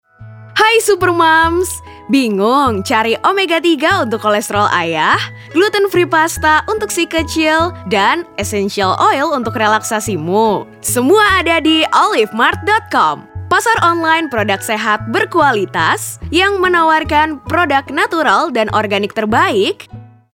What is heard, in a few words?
INDONESIA CASUAL